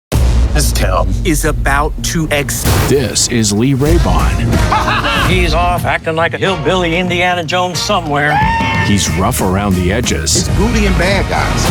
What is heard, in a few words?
VO Promo